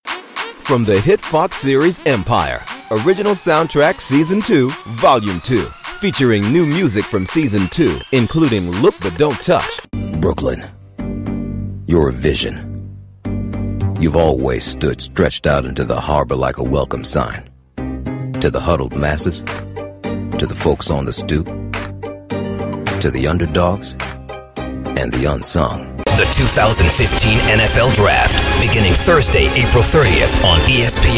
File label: english american promos